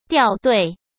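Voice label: "掉队", Mandarin Chinese; chinese flashcards; hsk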